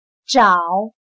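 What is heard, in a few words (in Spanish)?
"找", chino mandarin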